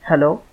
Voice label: "hello", Hindi